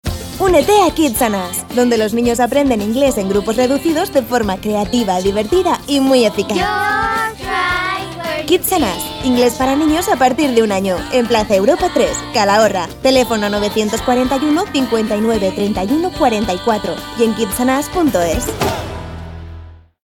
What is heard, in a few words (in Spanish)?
Cuna Radio; Kids&Us